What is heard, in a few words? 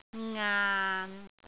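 "ngaan6", Cantonese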